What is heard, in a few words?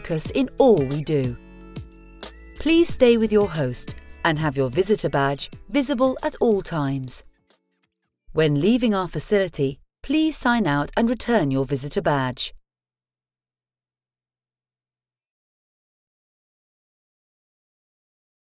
SAFETY DEMO, Voice Over